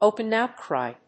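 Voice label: "Open outcry", English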